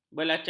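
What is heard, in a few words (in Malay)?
"belacan", Malay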